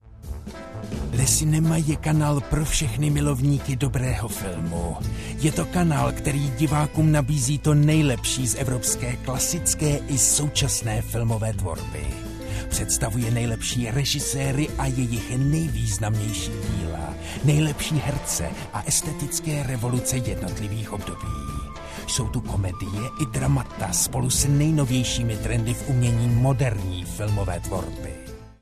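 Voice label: Czech; Czech, Eastern European, Male, Home Studio, 30s-40s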